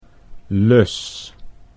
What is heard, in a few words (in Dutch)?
"lus", Dutch